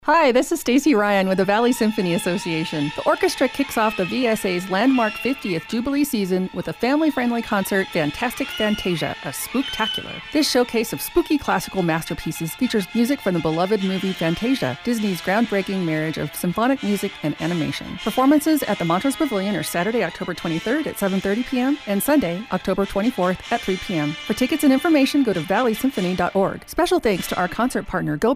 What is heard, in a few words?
Radio Spot; Fantasia